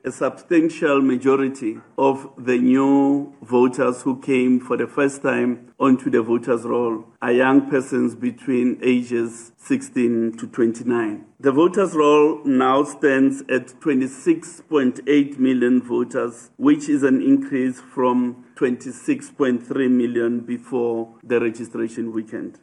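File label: English; Voters Roll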